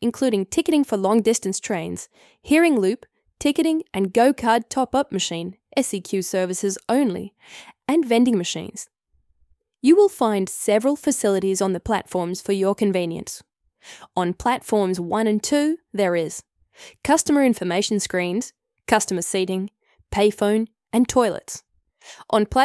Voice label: Ipswich railway station, station map audio description; Bell Street; South East Queensland; TRAX